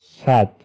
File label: "sad", Persian